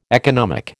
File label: "economic", American